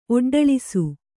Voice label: "ಒಡ್ಡಳಿಸು", Kannada; oḍḍaḷisu